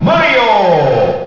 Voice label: announcer; mario; ssbm